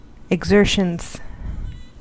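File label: "exertions", American English; pronunciations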